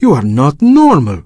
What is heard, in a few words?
Draenei Male Pissed